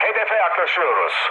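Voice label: Turkish near waypoint, mood med, speech; War Thunder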